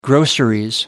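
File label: "groceries", British English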